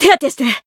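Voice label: Voice heal, Japanese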